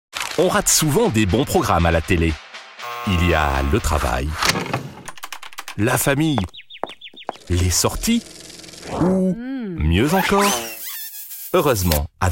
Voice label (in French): Voix medium souriante TV; Replay